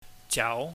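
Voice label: "jau", Cantonese, mid rising tone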